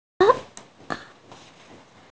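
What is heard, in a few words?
"la4", Mandarin Chinese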